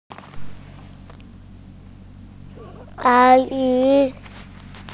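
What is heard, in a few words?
"a1 yi2", Mandarin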